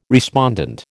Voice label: "respondent", American

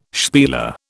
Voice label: "spieler", British

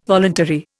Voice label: "voluntary", Indian

